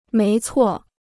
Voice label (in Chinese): "没错", Chinese